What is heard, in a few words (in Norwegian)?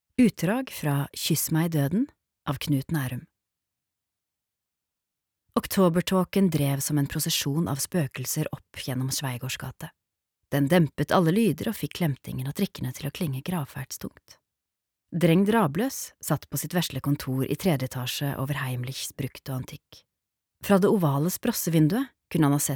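no lydbok, Norwegian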